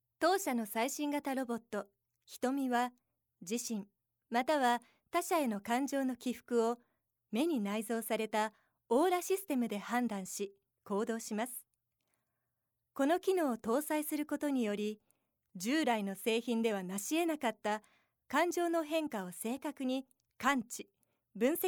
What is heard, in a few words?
narration